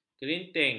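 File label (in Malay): "kerinting", Malay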